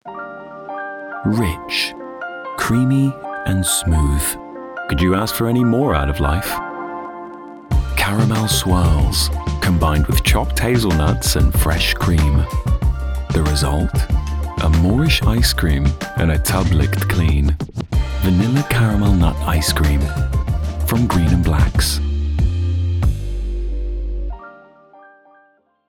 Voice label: Commercial; GREEN AND BLACKS